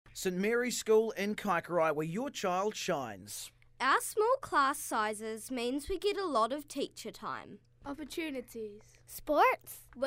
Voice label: Radio AD